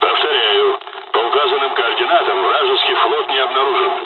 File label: dialogs russian; War Thunder